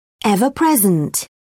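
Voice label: "ever-present", British English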